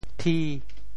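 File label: "thi5", Teochew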